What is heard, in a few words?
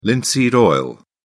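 "linseed oil", British English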